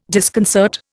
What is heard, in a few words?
"disconcert", Indian